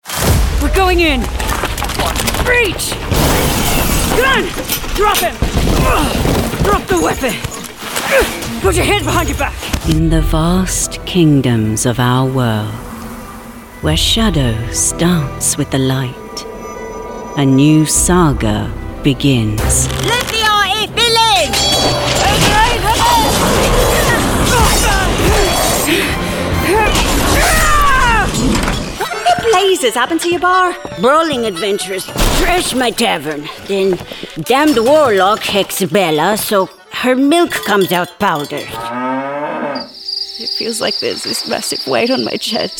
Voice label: english australian video games, australian voice actors